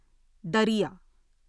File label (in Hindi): "दरिया", Hindi; hindi script